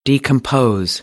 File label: "decompose", American; English; oʊ - əʊ